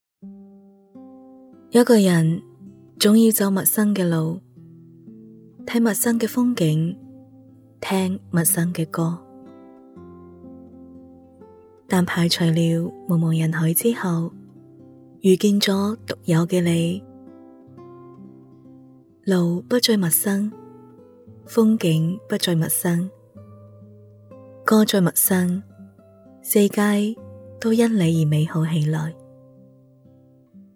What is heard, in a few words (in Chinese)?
粤语 旁白 婚礼